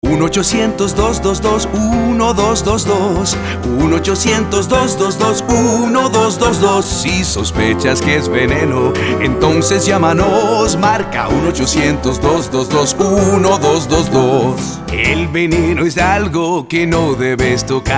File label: Poison Control Spanish, jingle; poisonhelp